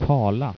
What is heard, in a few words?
"tala", Swedish